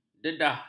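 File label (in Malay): "dedah", Malay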